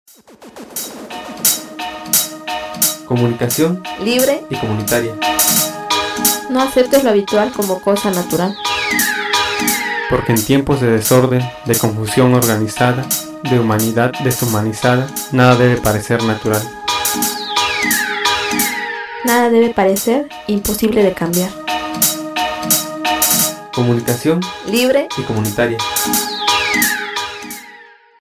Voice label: promo